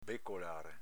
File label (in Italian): "becolare", dialetto veneto